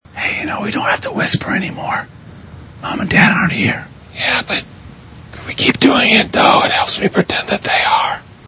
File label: whisper; Step Brothers